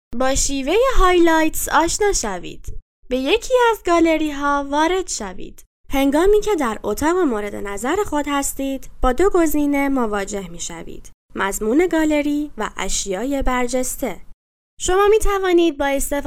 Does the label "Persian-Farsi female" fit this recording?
Yes